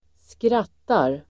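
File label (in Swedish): "skrattar", Swedish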